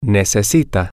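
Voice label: she needs, Spanish, male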